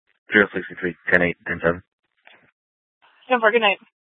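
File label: Scottsdale Police South Dispatch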